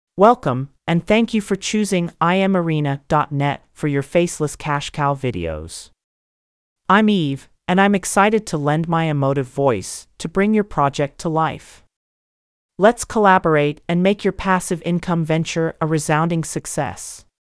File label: English US Female